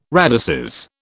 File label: "radices", British